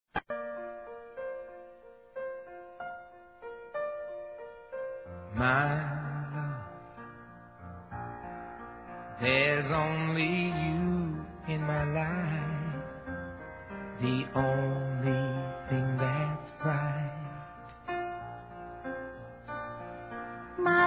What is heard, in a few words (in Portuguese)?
DESCULPAS-M; Telemensagens 2017